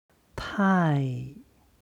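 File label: "tai", Chinese; 太; とても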